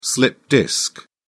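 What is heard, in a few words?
"slipped disc", British English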